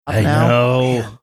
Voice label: saying i know funny